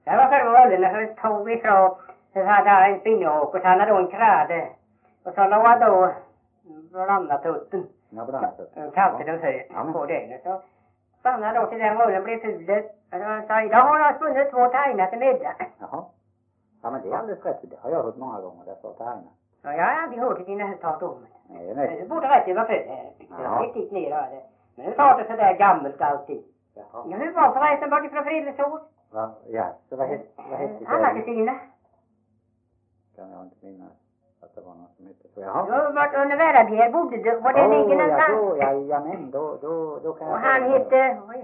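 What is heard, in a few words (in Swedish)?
Veddige Halland, Svenska sagor dialekter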